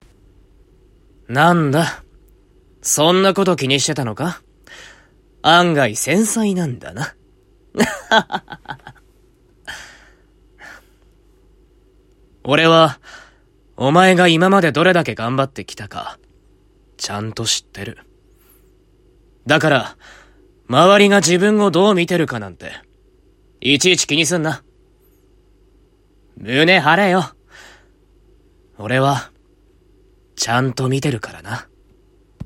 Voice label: 優しい 親友

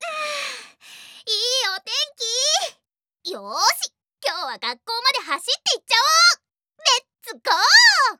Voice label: 女子

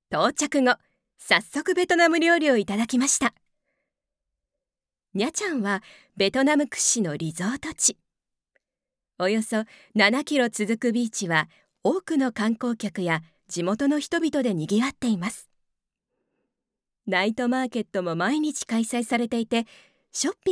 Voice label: 旅番組ナレーション

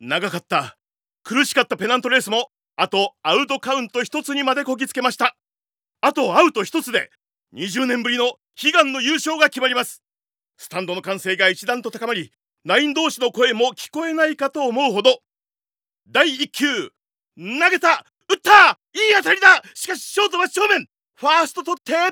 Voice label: 実況

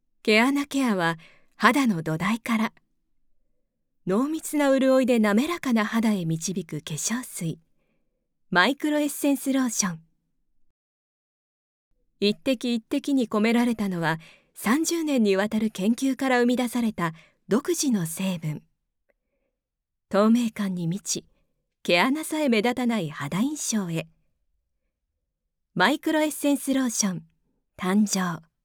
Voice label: 化粧品CMナレーション

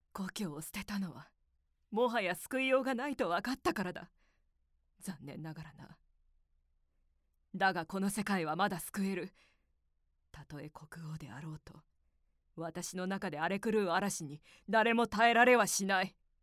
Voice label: セリフ シリアスな女性

